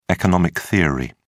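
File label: "economic theory", British English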